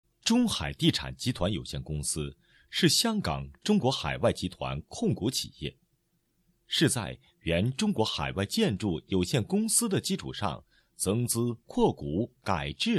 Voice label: boy, Chinese, zhuanti; zhonghaidichan